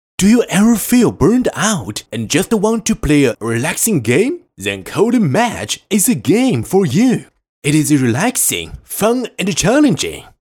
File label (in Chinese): 男 信息流